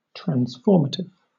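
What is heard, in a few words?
"transformative", British English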